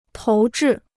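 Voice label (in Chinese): "投掷" Chinese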